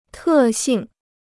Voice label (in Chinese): "特性", Chinese